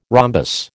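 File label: "rhombus", American English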